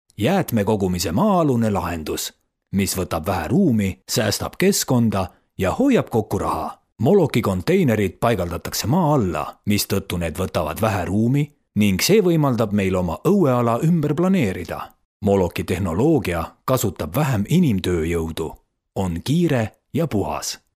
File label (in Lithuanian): (VYR) Estų balsas